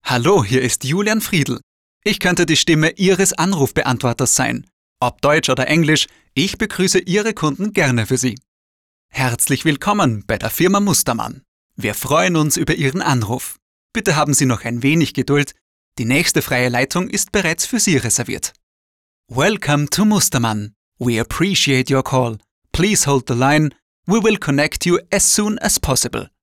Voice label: Telefonansagen

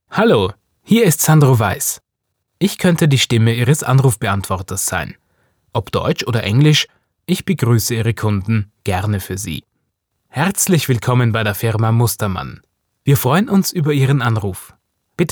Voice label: Sprecher Telefonansage

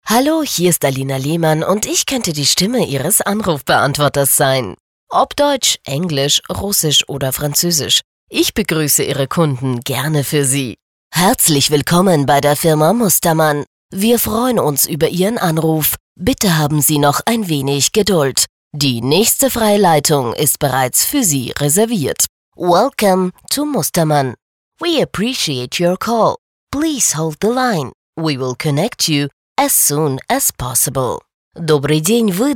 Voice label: Telefonansagen

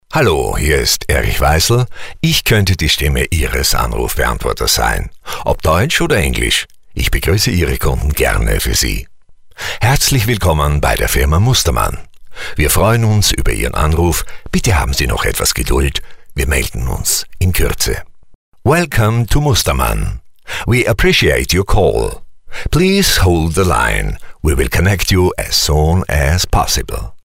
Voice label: Telefonansagen

